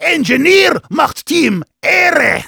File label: thanks for the teleporter, de; Heavy